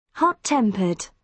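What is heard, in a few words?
"hot tempered", British English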